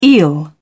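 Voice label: "eel", British English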